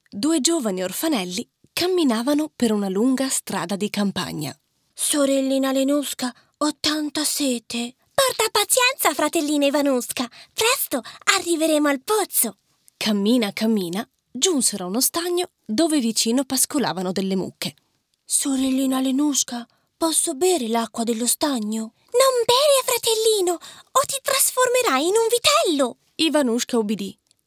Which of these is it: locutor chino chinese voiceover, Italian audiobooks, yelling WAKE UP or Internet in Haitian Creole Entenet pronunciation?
Italian audiobooks